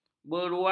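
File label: "beruang", Malay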